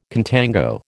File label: "contango", American